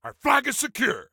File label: Flag Secure, Soldier Male; Soldier Male 03